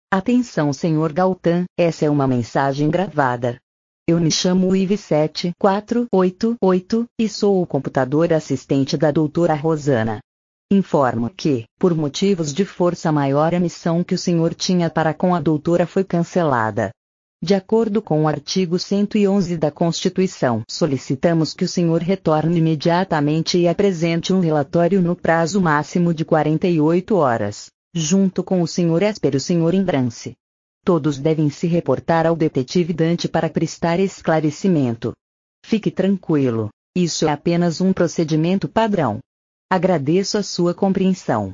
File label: robot voice; Robotic or Stuttery or Spooky